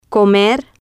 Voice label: "comer", Spanish